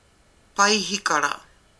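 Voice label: "paihikara", Māori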